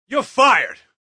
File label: Youre Fired, Yelling, Male